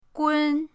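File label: "gun", Mandarin pinyin, first tone; pinyindct-open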